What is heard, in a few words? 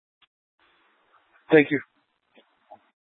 Light Rail Emergency, scanner; Valley Metro Public Transit System; Channel 6; Simulcast F- Tempe